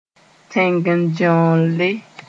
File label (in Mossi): "tẽngendẽoolle", Moore